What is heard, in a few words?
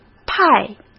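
"派", Mandarin Chinese; chinese flashcards; hsk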